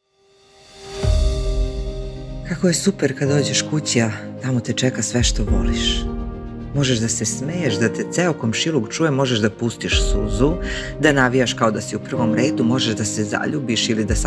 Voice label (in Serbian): Reklama; voice-over; In Slovak Language